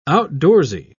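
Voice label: "outdoorsy", British English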